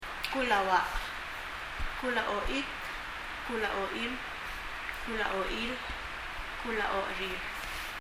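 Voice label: "bkul a oach" Palauan, knee; 膝; 身体の各部位; 膝・knee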